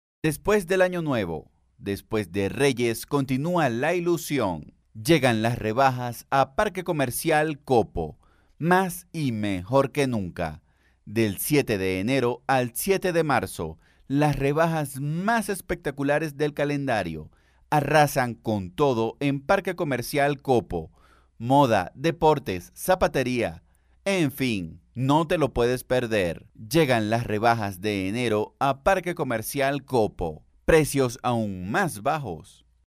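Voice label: Spanish Latin America male voice over, sales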